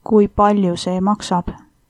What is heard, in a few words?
how much, estonian